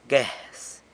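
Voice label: "guez", San Lucas Quiaviní Zapotec; cigarette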